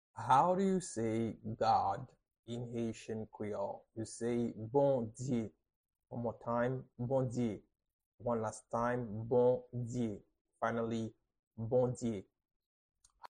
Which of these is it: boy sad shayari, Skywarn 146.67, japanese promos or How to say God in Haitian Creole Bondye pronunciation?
How to say God in Haitian Creole Bondye pronunciation